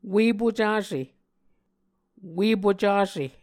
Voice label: "ue pucase", Muscogee

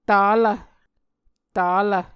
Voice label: "talv", Muscogee

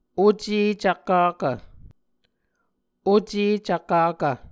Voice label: "oce cvkakv", Muscogee

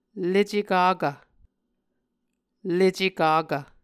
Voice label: "lece kakv", Muscogee

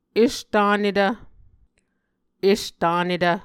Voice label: "estanetv", Muscogee

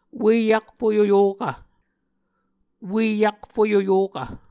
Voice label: "ue-akfoyoyokv", muscogee

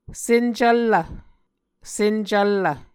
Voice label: "sencvllv", muscogee

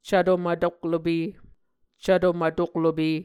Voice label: "cvto mvtoklope", muscogee

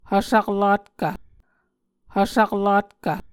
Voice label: "hvsaklatkv", Muscogee

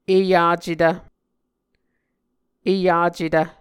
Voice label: "eyacetv", muscogee

